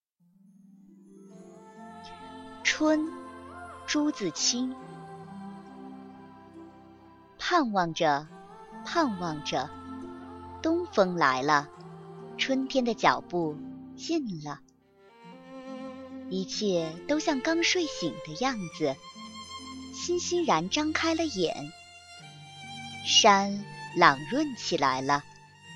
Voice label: story